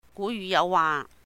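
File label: "Gu Yuh Yauh Wah", Cantonese; 古語有話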